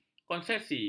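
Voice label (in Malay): "konsesi", Malay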